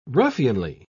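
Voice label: "ruffianly", English, British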